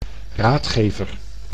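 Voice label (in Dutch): "raadgever", Dutch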